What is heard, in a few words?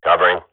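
radio bot response cover request, swat; agents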